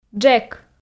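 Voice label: "джек", Komi, female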